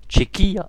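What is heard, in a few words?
"czech", Breton; gcompris; geography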